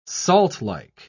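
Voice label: "saltlike", British English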